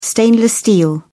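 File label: "stainless steel", British English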